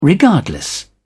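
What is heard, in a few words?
"regardless", British English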